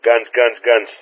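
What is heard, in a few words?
"guns", spoken; DCS; Ka-50; Player Messages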